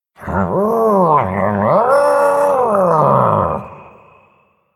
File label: Male Murloc Greetings; zh